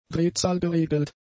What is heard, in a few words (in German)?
"drehzahlgeregelt", German; adjectives